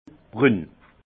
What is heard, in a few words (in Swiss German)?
"brun", alsace; vin